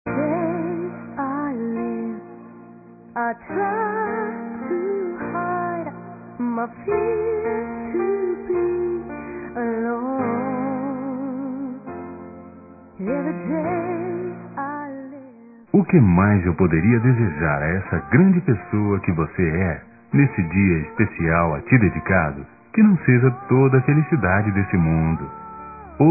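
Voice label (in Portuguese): neutra masculino, telemensagens dia dos pais; Telemensagens 2017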